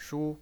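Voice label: "shu", Mandarin Chinese pinyin, first tone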